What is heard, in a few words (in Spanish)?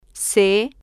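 "c", spanish, female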